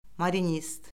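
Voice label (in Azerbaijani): "marinist", Azerbaijani; Orfoqrafiya Lüğəti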